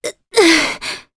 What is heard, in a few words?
Damage, jp; heroes; Veronica; kingsraid